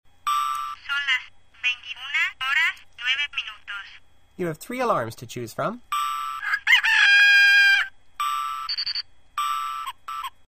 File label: spanish talking watch